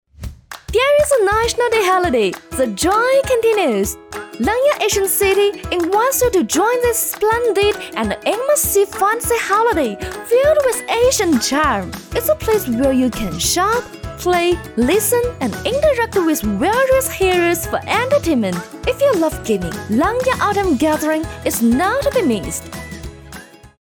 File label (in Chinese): 女 英 欢快 活力